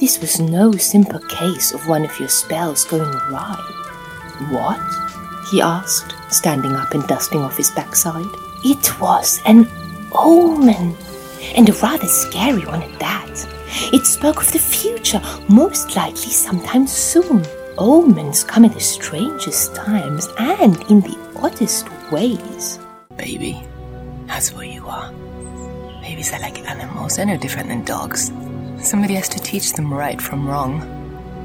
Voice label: female german english with german accent compilation